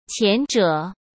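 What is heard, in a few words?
"前者", Mandarin; chinese-flashcards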